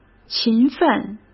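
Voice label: "勤奋", Mandarin Chinese; chinese-flashcards; hsk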